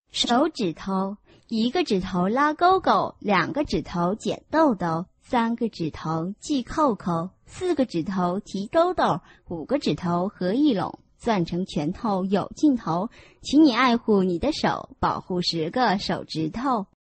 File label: chinese tongue twister